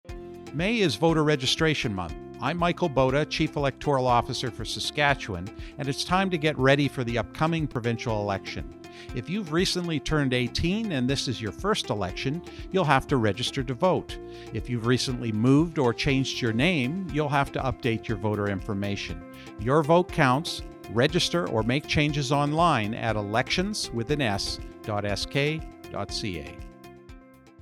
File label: radio ad; Voter Reg Month; CEO